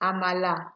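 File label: "amala", Nigerian Pidgin, female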